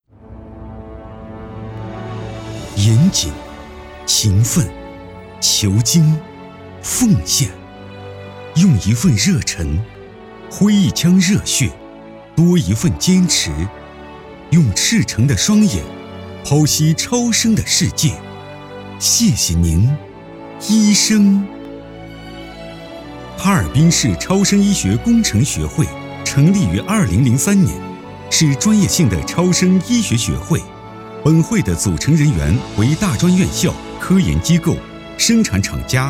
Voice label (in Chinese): 男 宣传片 医院类宣传片